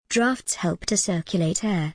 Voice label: en gb draughts help to circulate air